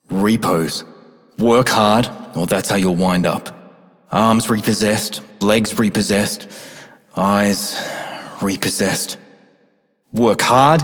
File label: Male Repo, Male Two, en; Dialog; Warframe; Solaris Venus